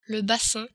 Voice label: "bassin", French

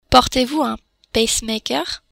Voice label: "pacemaker", French

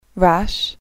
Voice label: "rash", English

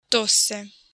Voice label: "tosse", Italian

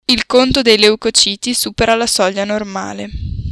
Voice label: "leucociti", Italian

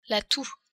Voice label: "toux", French

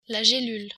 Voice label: "gelule", french

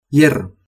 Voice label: "hierro", spanish

